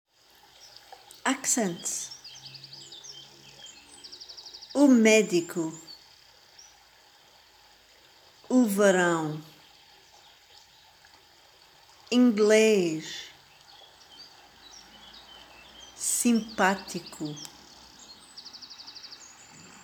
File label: Accents